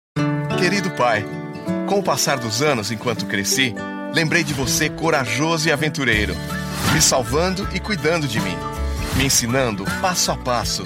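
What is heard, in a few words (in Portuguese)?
Brazilian Portuguese, Commercial; voice demos; GoLocalise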